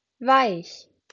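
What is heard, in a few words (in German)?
"weich", German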